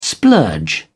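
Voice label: "splurge", British English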